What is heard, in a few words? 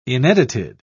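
"inedited", British English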